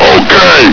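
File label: "ok!", male; hl2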